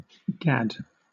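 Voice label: "gad", British English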